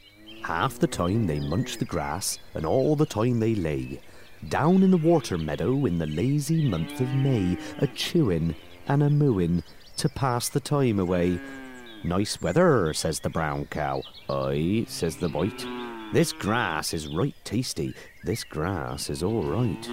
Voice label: Narration; Brown Cow

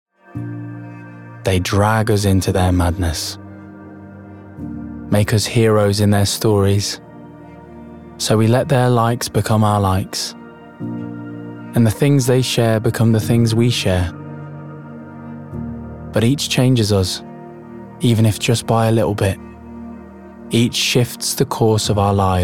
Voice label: Ad; FACEBOOK COMMERCIAL

